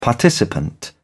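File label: "participant", British English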